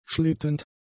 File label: "schlittend", German; adjectives